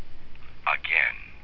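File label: "again", USA, male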